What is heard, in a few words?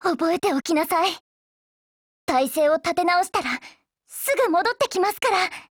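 RETREAT, Japanese; Danuvia43M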